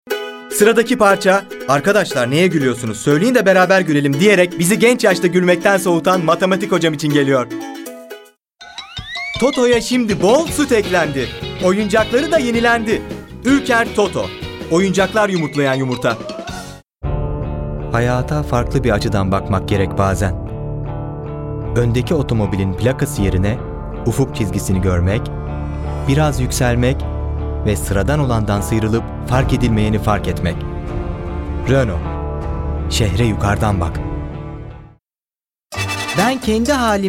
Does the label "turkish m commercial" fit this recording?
Yes